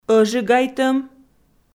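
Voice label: "ӧжигайтӧм", Komi, female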